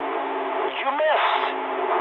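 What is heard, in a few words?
bomb failure mood high, speech English; War Thunder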